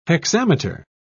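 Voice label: "hexameter", English, British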